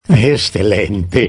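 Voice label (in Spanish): "excelente", Spanish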